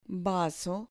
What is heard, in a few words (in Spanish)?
"vaso", Spanish